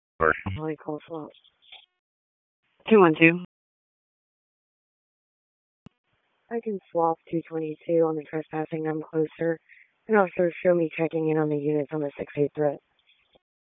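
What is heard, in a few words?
Police Dispatch; Raleigh; Northeast District; wake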